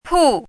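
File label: "pù", Chinese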